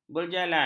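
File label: "berjalan", Malay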